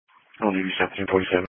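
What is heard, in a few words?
Police Patrol Dispatch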